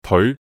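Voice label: "teoi2", Cantonese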